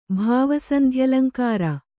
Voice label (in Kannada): "ಭಾವಸಂಧ್ಯಲಂಕಾರ", Kannada